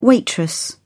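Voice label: "waitress", British English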